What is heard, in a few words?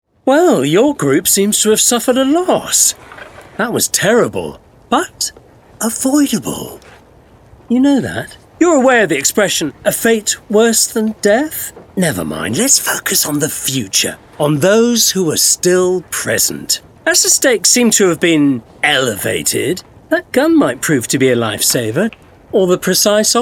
Gaming Curator narration